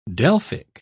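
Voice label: "delphic", British English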